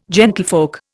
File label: "gentlefolk", Indian